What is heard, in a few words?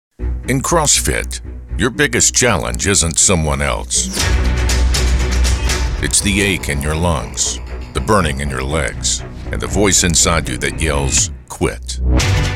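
commercial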